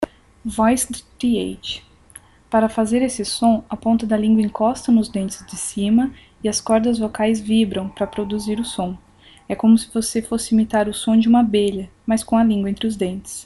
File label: voiced th